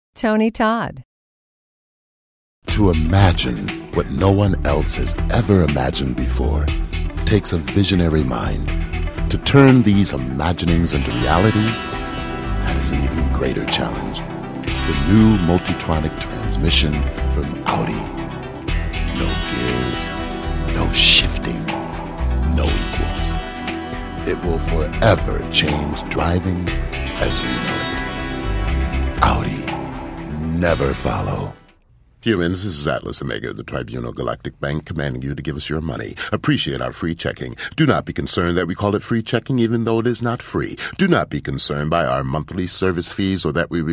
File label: commercial; Innovative LA